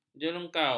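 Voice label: "jerungkau", Malay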